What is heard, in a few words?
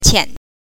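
"qian", Mandarin Chinese, neutral tone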